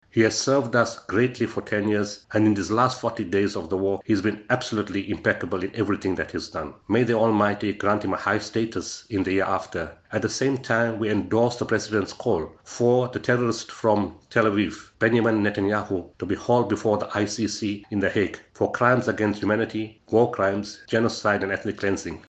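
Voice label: English; Expulsion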